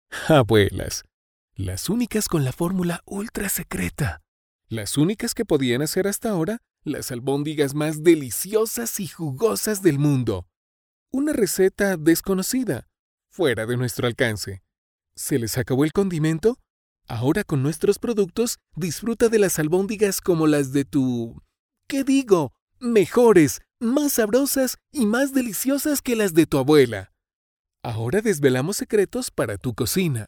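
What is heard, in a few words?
Spanish Latin America male voice over, sales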